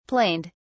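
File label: "planed", British English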